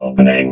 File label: "opening", robot